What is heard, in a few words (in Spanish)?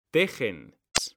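"tejen", Spanish, male; mekanta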